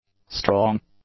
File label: "strong", English; adjectives